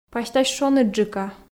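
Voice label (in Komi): пасьтась шоныдджыка, Komi, female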